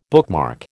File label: "bookmark", American